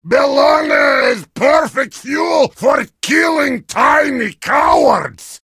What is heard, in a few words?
heavy sandwich taunt, vo